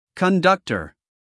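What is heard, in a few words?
"conductor", American English, male; everyone-can-use-english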